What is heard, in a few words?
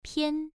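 "pian", tone 1, pronunciation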